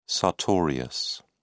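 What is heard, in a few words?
"sartorius", British English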